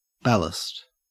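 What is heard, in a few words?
"ballast", Australian English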